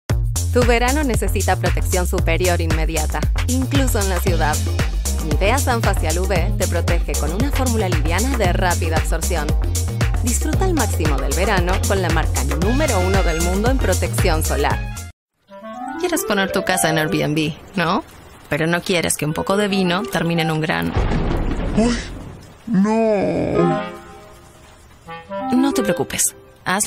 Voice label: spanish latin american commercial, argentinian spanish